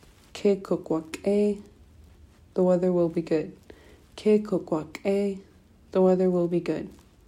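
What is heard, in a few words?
the weather will be good, Tlingit; future; winter weather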